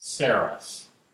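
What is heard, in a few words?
"saros", English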